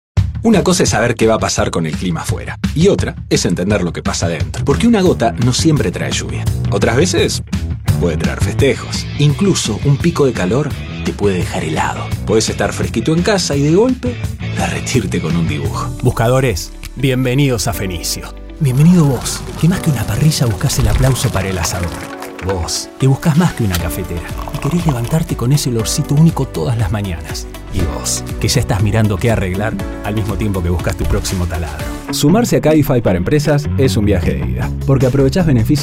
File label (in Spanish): comerciales tono conversado